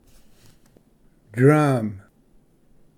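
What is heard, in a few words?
"drum", English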